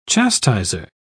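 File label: "chastiser", British English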